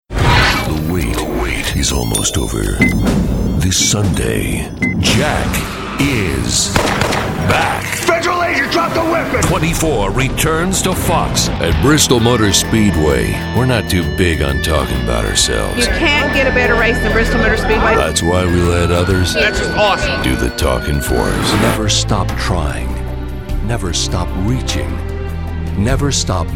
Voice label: M Commercial, voiceover mens